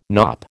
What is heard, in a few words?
"knop", American